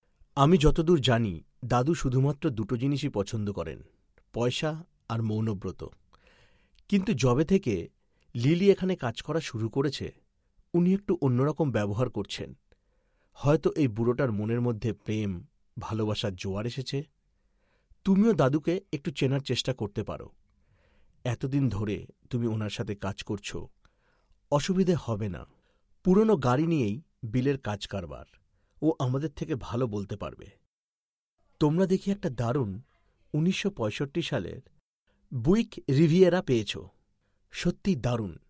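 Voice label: Bengali M Explainer, male; voice over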